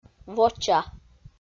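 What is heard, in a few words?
"воча", Komi, female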